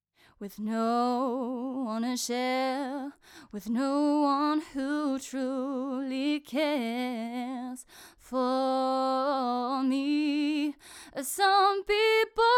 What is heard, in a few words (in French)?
beyerdynamic voix brute